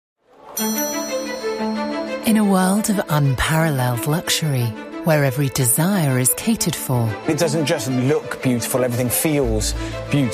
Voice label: english british documentaries